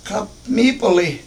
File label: kap7 mIIp71li The Cup Is Full, Washo